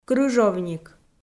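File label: "крыжовник", Komi, female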